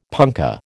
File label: "punkah", American